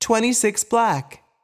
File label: voice Over, roulette; american roulette 3